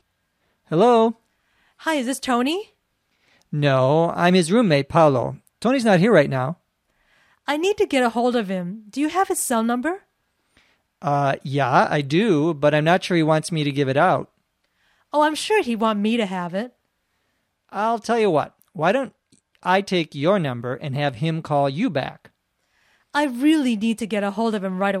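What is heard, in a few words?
Taking a phone message fast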